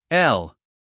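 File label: "l", American English, guy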